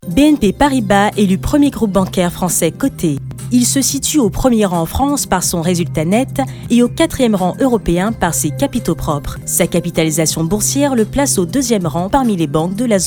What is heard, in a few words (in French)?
Institutionnel Médium femme jourrnalistique sérieux; BNP PARIBAS